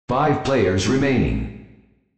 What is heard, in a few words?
Five Players Remaining, Announcer; RizzeBattleRoyale; TPSBR